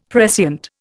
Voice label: "prescient", Indian; Dictionary